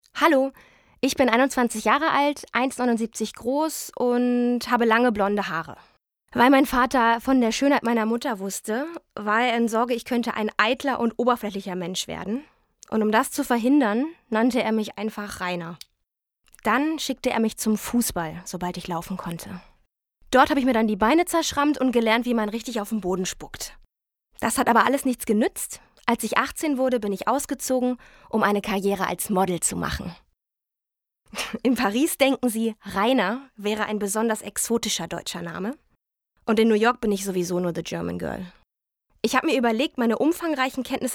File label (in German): erzaehlung